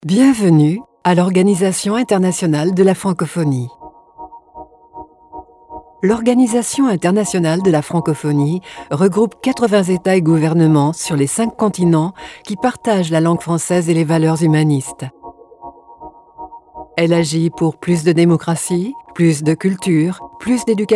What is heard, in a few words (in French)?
Repondeur; Organisation Internationale de la Francophonie